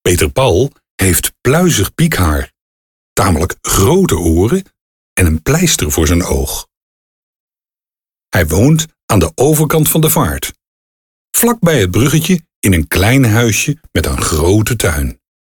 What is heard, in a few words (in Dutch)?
Luisterboek